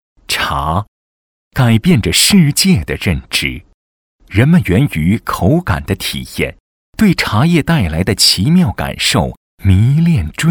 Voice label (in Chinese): 男; 普洱茶